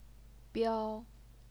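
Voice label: "biao", Mandarin Chinese, first tone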